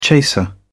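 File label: "chaser", British English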